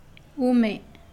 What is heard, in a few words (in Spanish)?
Nawat palabras dos; pipil